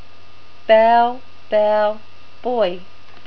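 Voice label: "bough", New Zealand English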